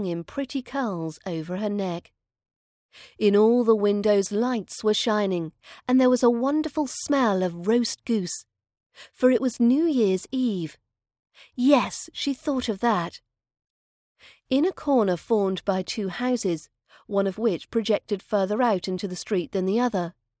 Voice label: the little match girl fairytale british accent training audio